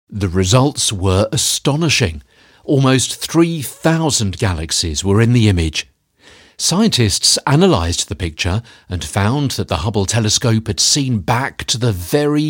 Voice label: Narration

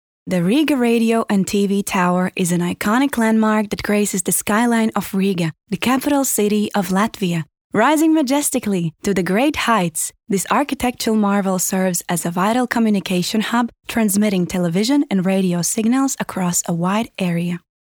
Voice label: ENG Infografika

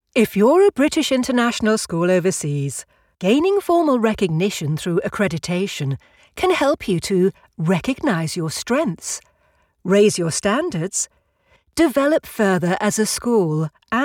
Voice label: Explainer

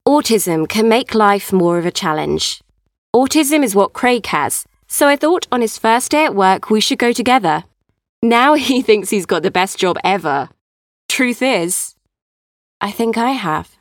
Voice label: Commercial

